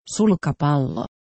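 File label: "sulkapallo", Finnish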